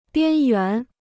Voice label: "边缘", Chinese

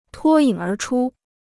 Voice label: "脱颖而出", Chinese

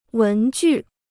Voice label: "文具", Chinese

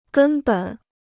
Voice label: "根本", Chinese

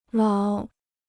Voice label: "老", Chinese

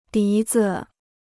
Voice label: "笛子", Chinese

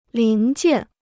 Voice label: "零件", Chinese

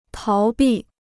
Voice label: "逃避", Chinese

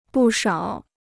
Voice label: "不少", Chinese

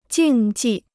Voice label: "竞技", Chinese

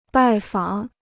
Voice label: "拜访", Chinese

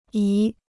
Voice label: "姨", Chinese

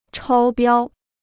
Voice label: "超标", Chinese

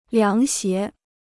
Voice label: "凉鞋", Chinese

